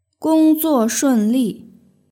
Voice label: "gongzuoshunli", Chinese; nygreeting